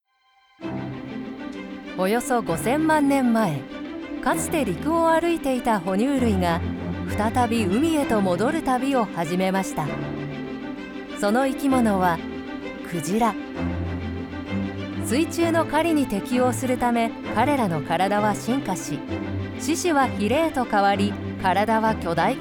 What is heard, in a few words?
informative educational